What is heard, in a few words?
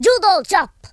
fireball, Russki; speech